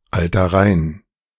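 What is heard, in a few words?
"Alter Rhein", German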